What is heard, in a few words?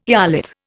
"eolith", Indian